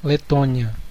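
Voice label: "latvia", Brazilian Portuguese; gcompris; geography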